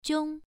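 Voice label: "jiong", Mandarin Chinese, first tone, pronunciation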